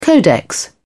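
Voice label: "codex", English, British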